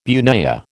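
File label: "bunya", American English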